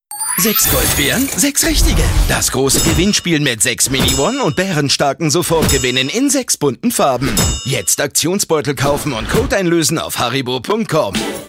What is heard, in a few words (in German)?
Spots OFF, maenner; Haribo